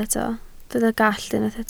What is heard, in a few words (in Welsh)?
"gallt", Welsh